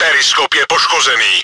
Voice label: surveying devices damaged, cz; Periskop je poškozený.; Czech; Приборы наблюдения (перископ) повреждены.; World of Tanks

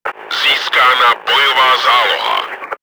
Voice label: combat reserve, cz; Ziskána bojová záloha!; Czech; Получен боевой резерв!; World of Tanks

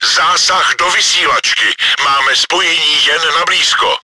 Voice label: radio damaged, cz; Zásah do vysílačky, máme spojení jen na blízko.; Czech; Попадание в радиостанцию, у нас связь только с ближайшим окружением.; Повреждение радиостанции; World of Tanks

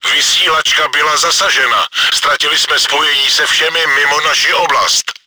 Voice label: radio damaged, cz; Vysílačka byla zasažena, ztratili jsme spojení se všemi mimo naši oblast.; Czech; World of Tanks

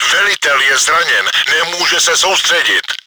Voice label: commander killed, cz; Velitel je zraněn, nemůže se soustředit.; Czech; Командир ранен, он не может сосредоточиться.; World of Tanks

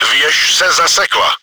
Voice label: turret rotator destroyed, cz; Věž se zasekla.; Czech; Башню заклинило.; World of Tanks

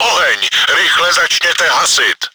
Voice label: fire started, cz; Oheň! Rychle začněte hasit!; Czech; Огонь, быстро начните тушить!; World of Tanks

